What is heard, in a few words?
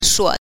"shuo", pinyin